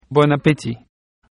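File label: bon appetit, French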